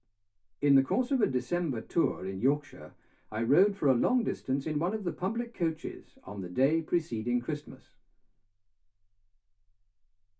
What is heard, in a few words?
male speech english